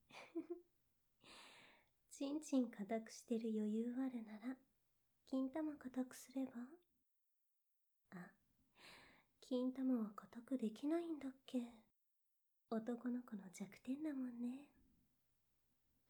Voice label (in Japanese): バイノーラル; レイプ魔撃退